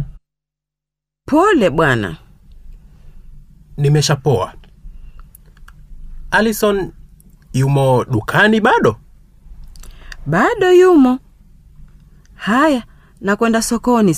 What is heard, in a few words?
Swahili At the Craft Shop Dialog; Teach yourself Swahili audio